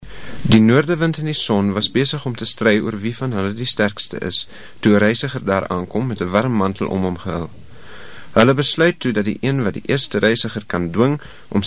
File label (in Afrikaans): man